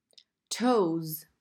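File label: "toes", English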